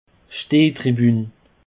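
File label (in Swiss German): "stehtribun", Alsatian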